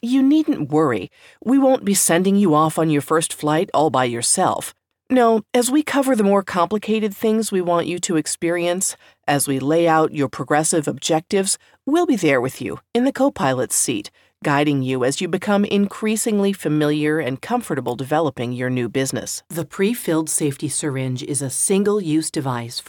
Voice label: e-Learning